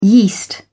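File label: "yeast", British English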